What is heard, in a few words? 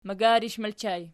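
"magarish" ergative plural, Archi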